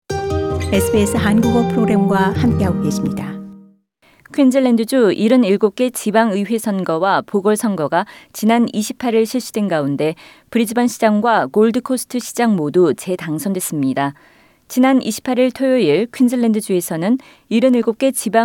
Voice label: korean; Brisbane mayor claims election victory in Queensland; SBS Korean